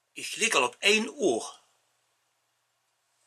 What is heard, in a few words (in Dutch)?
limburgs